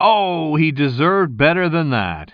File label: commentator